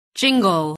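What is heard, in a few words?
"jingle", English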